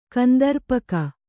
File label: "ಕಂದರ್ಪಕ", Kannada